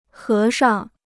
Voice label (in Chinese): "和尚", Chinese